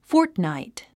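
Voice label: "fortnight", English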